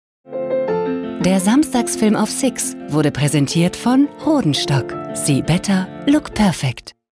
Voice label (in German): W Fremdtake, Sanft